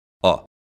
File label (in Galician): "ao", lingua galega; contracción; ɔ